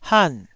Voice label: "han4", Taiwanese syllable